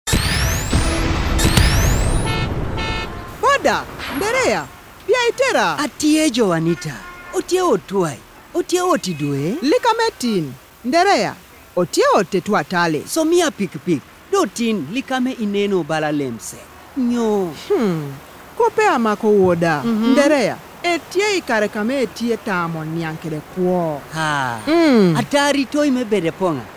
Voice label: Taking Charge KUMAM, adolescent health campaign radio spots; BOLD